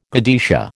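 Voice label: "godetia", American English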